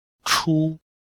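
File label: "chu1", Mandarin Chinese; Cours de Chinois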